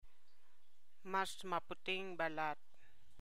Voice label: Tagalog